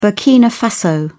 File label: SPOKEN Burkina Faso; africa